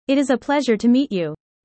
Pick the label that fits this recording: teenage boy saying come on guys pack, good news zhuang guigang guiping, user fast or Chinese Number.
user fast